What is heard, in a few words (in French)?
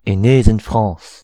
"ile de france", br; gcompris